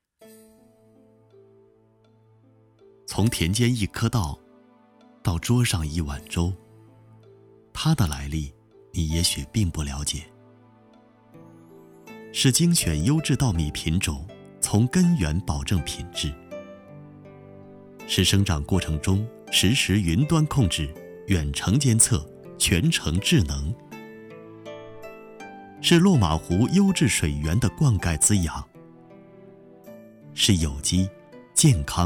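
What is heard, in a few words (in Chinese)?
广告稻米; 禾邦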